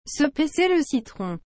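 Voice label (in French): "se presser le citron", French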